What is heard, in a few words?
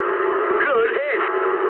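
English bomb success mood med; War Thunder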